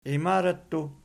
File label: "iimarattu", Archi